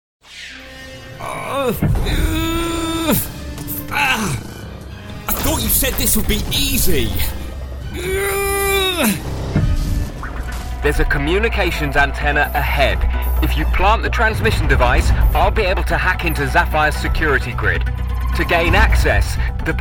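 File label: English UK, Videogame, voice demos; GoLocalise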